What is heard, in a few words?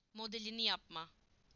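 "modelling", Turkish; PictureDictionary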